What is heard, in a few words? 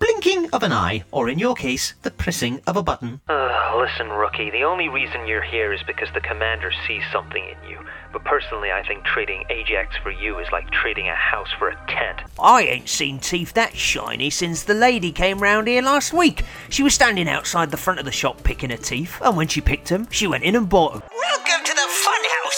Video Game Voice; Processed